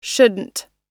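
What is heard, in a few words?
"shouldn't", US English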